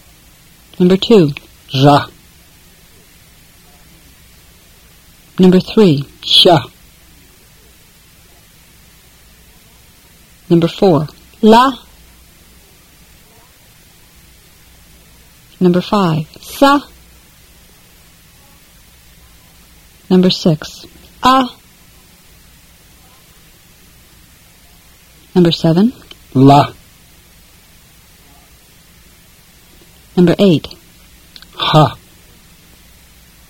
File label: Fluent Tibetan drill